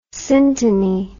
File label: "synteny", English word